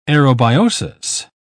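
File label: "aerobiosis", British English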